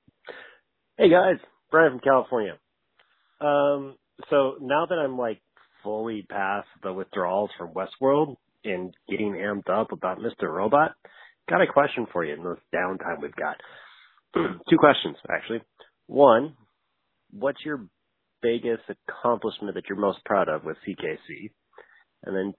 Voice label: Voicemail